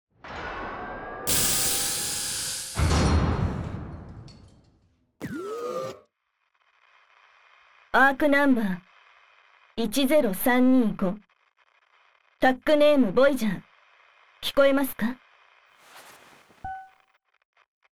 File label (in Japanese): 催眠解除, 男性向け; 催眠版